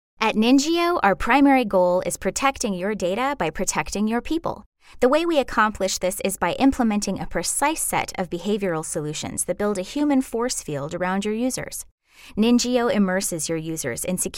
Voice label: Narration Female